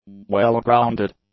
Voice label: "well-grounded", English; adjectives

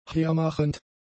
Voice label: "hermachend", German; adjectives

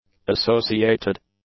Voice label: "associated", English; adjectives

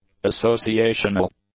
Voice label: "associational", English; adjectives

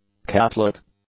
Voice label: "Catholic", English; adjectives

